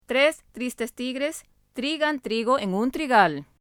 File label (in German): spanisch